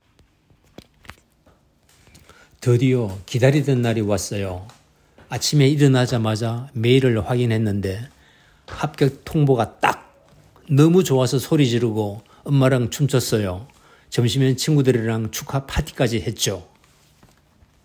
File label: Old Male Happy, korean voice emotion